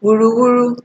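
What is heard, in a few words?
"wuruwuru", Nigerian Pidgin, female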